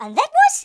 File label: oops, Geordie; speech